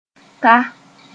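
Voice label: "ta", Mooré; arrive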